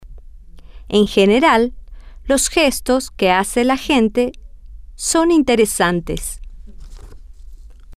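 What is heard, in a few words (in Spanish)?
en general los gestos que, Spanish, female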